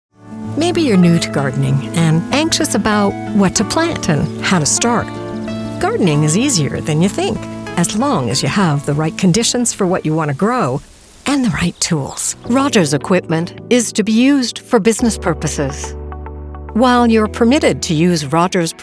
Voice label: Narration